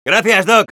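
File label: Scout thanks for the heal, Spanish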